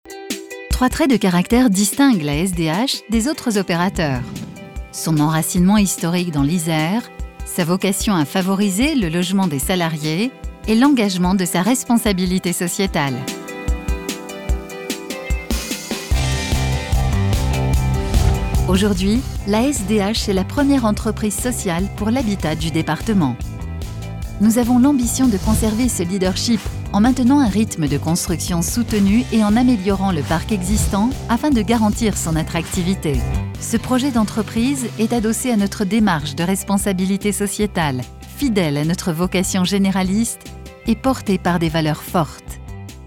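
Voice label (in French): film institutionnel, voix off femme; sdh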